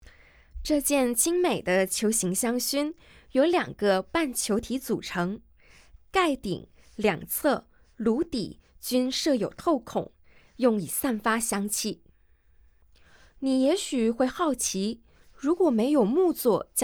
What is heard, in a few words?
Mandarin, audio guide